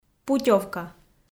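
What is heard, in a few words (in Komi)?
"путёвка", Komi, female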